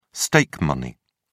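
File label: "stake money", British English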